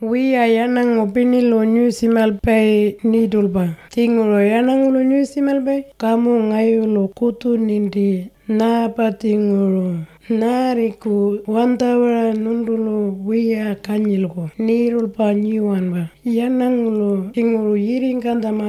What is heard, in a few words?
Ngaanyatjarra; Look after your blood campaign